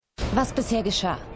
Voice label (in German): Erzaehlerin, Stimmproben; The Flash